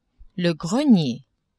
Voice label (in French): "grenier", French; maison